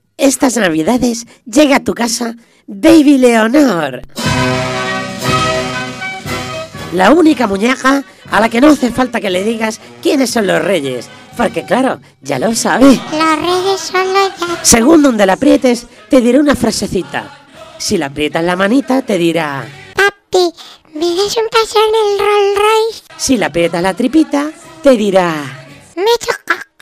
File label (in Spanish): Publicidad; Baby leonor